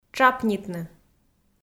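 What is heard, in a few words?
"тшапнитны", Komi, female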